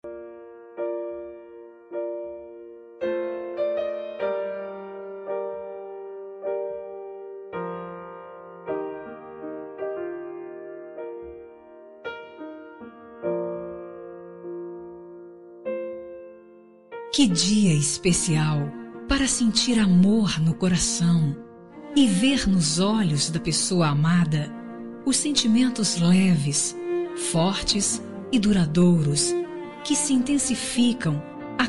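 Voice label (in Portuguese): aniv casamento fem, Telemensagens; Telemensagem de Aniversário de Casamento Romântico – Voz Feminina; Telemensagens para todas as ocasiões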